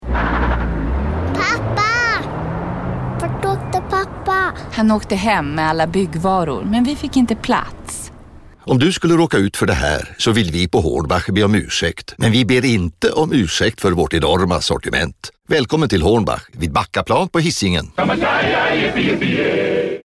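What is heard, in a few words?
Swedish, female, reklam; hornbach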